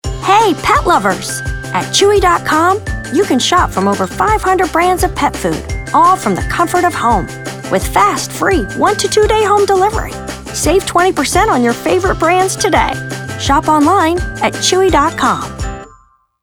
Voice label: spot chewy, voiceover